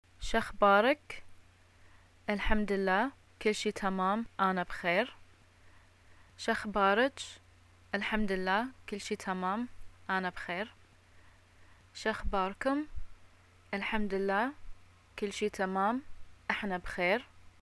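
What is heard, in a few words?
greeting how r u, Bahraini arabic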